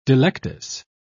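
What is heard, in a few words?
"delectus", British English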